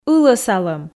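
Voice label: "ылӧсалӧм", Komi, female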